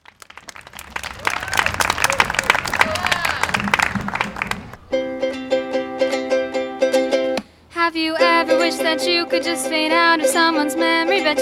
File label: VOICE MIC ONLY